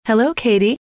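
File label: "hello", female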